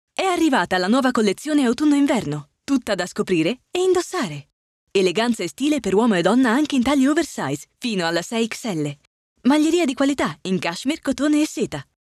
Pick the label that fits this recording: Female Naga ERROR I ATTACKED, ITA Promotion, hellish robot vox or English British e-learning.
ITA Promotion